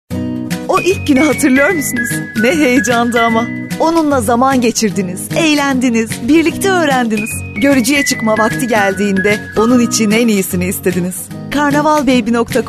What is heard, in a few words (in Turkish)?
reklam